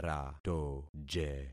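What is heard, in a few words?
"ratoje", concatenated syllables; Affixing experiment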